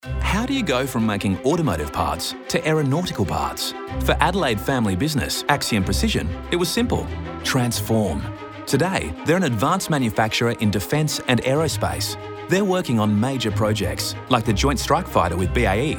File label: radio ad; Adelaide